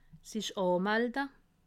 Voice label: "anmalde", alsace

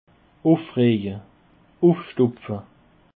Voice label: "ufreje", alsace

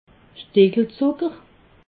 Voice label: "stickelzucker", alsace

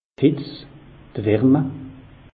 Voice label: "hitz", alsace; meteo

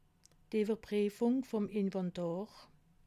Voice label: "iwwerprifung", alsace

